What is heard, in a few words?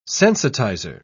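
"sensitizer", British English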